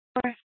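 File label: Police Department Dispatch, scanner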